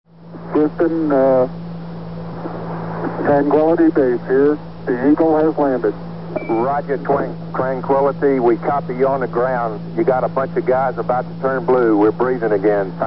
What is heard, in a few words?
ringtone eagle Has Landed extended